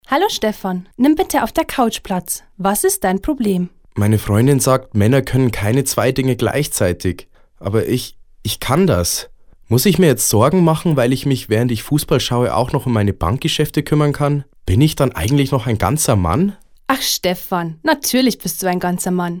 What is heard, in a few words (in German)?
Werbung Sparkasse